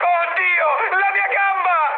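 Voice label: Italian damaged pilot mood high, speech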